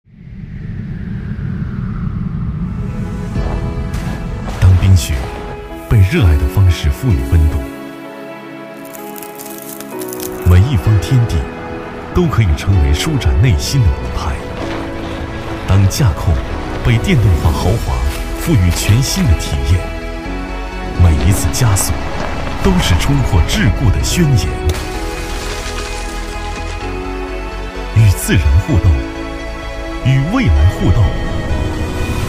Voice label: 男 广告; 奥迪Q4

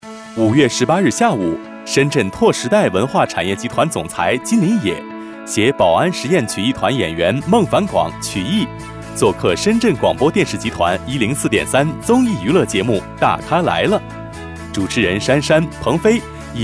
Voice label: 男 新闻 娱乐新闻

